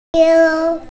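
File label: "diu1", Mandarin Chinese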